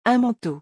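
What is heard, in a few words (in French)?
"Un manteau", French; vocabulaire; le lexique des vacances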